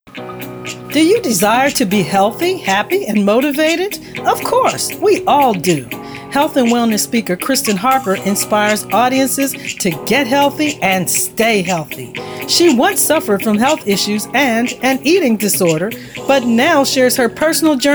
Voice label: speaking commercial